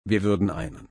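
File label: "einen", German, slow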